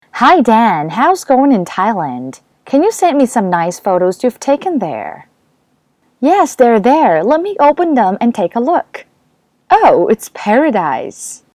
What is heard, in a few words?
英女 role play